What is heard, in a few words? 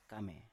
"kame", Biak